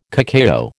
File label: "cacao", American